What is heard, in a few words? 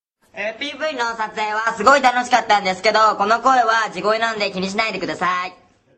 Helium; Takeru